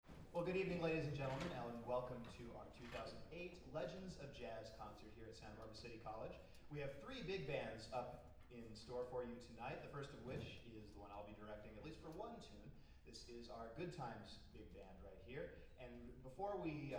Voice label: Announcements